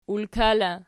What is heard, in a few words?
"ulka", Archi